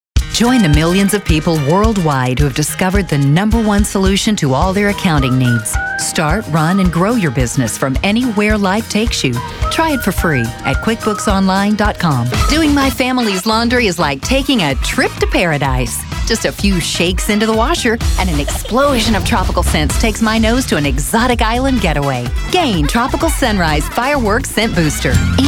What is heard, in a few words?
Commercial, Female voices